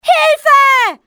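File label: help me, female; XBOX; civ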